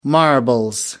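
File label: "marbles", English, British English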